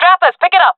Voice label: request move, jungle fem; vo; Counter Strike Model Pack; CS:GO